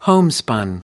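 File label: "homespun", American English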